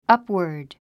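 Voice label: "upward", English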